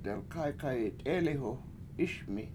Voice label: "delkAykayi7 t7EEliwhu 7ISmi" The Tall Man Was Singing, Washo